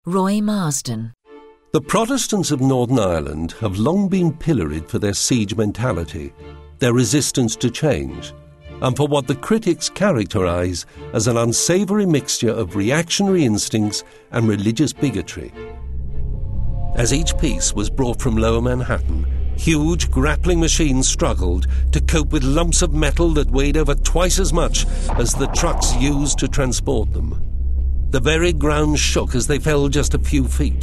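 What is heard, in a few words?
narration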